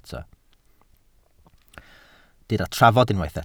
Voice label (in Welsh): "trafod" Welsh